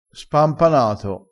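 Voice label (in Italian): "spampanato", vocabolario dialettale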